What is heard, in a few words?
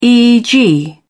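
"eeg", British English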